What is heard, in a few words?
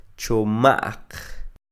"choma'q", Quiché; gordos(plural predicativo); fat(plural predicative); INALI; UTexas; CHQETA